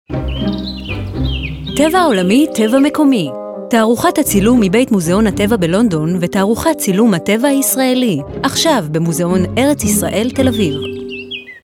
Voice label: hebrew